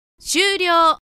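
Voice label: "syuryo", Japanese; announce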